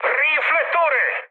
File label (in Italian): bomb success, mood high, speech it; War Thunder